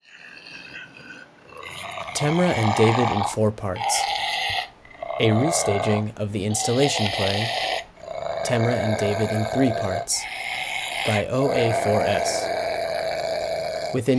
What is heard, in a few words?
announcement